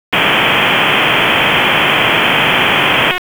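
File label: Fire Department HQ Dispatch; RFD HQ DISP; wake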